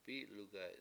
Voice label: "p7I7lugay7", Washo; turn over